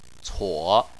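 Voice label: "cuo" third tone, Chinese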